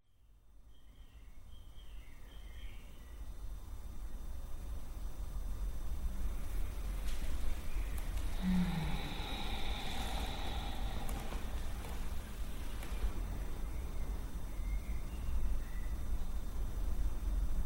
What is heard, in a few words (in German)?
Meditation